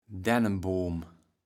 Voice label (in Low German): "Daennenboom", kaevels platt